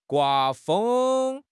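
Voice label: "gua1 feng1", Mandarin Chinese; HSK3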